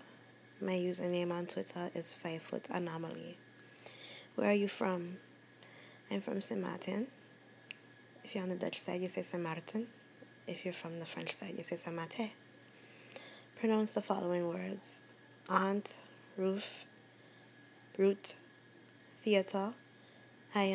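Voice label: accent challenge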